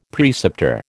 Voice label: "preceptor", American